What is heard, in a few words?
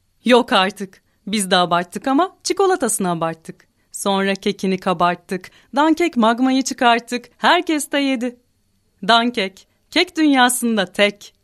Turkish Ad, Turkish Female; DANKEK